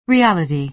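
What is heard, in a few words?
"reality", American English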